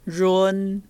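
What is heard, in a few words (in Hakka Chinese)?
"rhun1", 客語 海陸腔; 雲; 永; 熨; 運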